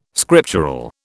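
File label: "scriptural", British English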